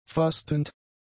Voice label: "fastend", German; adjectives